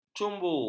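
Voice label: "cumbu", Malay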